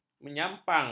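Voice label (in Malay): "menyampang", Malay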